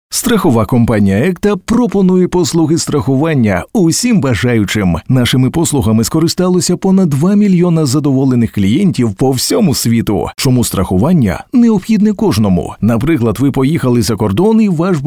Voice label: ukrainian corporate videos